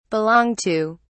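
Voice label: "belong to", British English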